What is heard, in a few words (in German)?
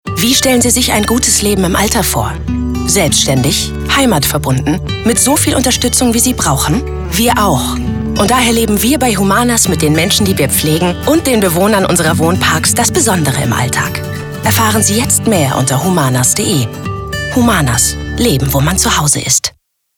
Radio Werbung; Humanas; SAW